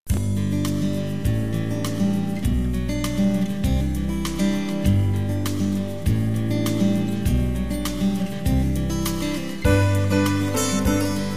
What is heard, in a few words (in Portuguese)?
Namorados pra Recem Casados F